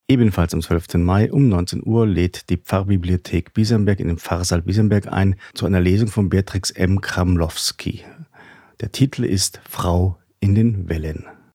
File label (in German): Lesung; Frau in den Wellen